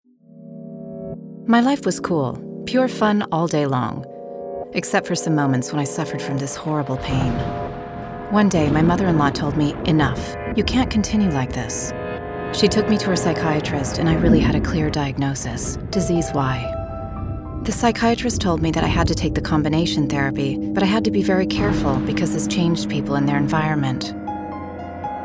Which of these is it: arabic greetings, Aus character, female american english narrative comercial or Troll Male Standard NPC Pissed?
female american english narrative comercial